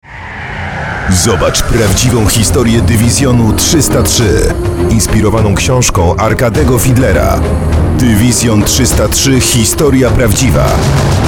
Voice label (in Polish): radio spot; Dywizjon 303